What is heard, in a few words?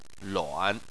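"luan", Chinese, third tone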